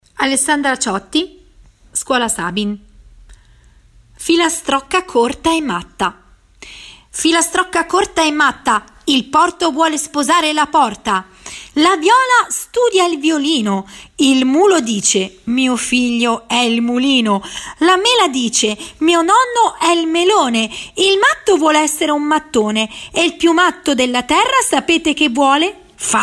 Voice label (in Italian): filastrocca corta